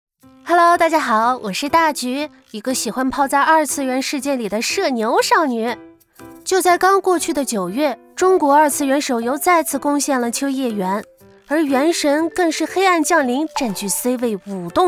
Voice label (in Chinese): 社牛少女游戏二次元短视频解说